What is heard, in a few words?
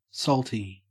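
"salty", Australian English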